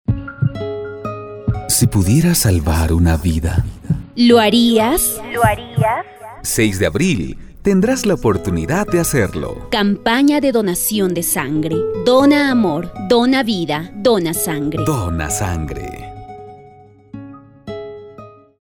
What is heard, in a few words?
spot